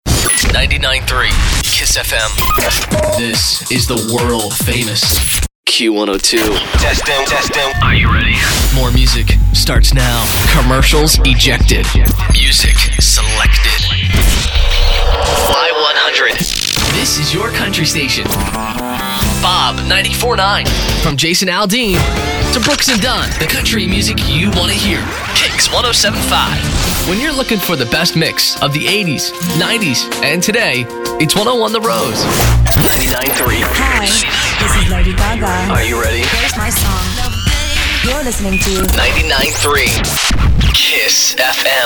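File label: imaging voice; Formats CHR, COUNTRY, AC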